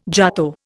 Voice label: "jato", Indian